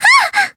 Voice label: damaged, jp